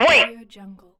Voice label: female military radio voice wait

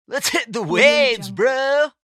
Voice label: surfer saying lets hit the waves bro

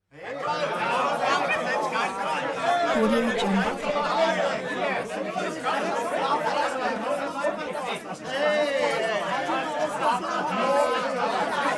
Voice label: human crowd talk upset loud long

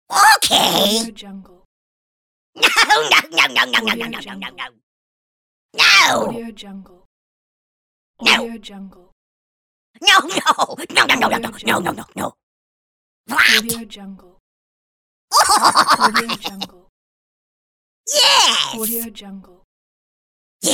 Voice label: cartoon monster expressions

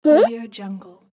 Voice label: baby saying "huh"

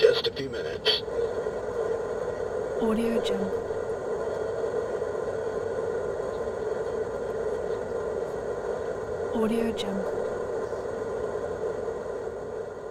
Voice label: airplane pilot message good afternoon

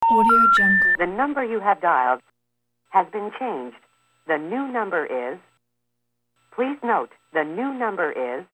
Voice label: number has been changed voice message; sound ideas

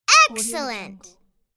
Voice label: child saying excellent

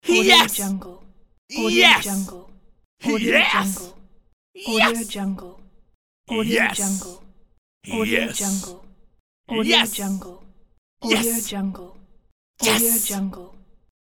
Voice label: male excited "yes"

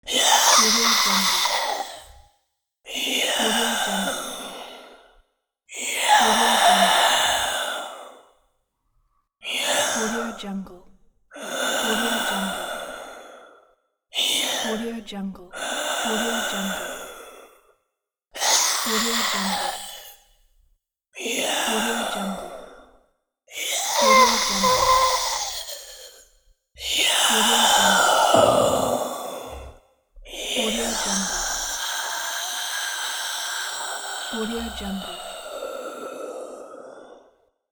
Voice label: ghost voice

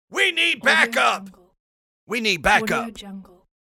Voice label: male yelling we need backup

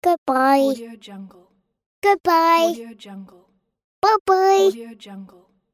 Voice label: child saying goodbye

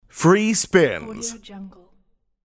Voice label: free spins male voice game phrase

